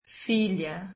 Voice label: brazilian daughter

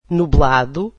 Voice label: "cloudy", Portuguese

